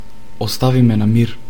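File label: leave me alone, informal, macedonian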